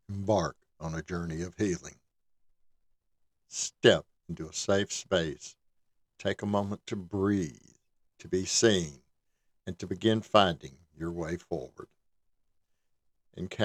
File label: Web Video Voiceover, Voiceover-Narrator Voice Sample; 'Welcome to TC&W'